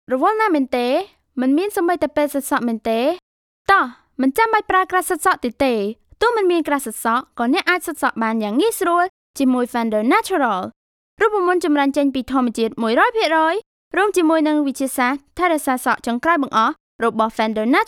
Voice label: Khmer